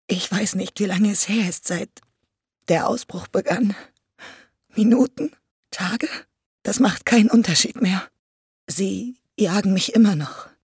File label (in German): Sprecherin furcht; Alt Stimme; furcht 1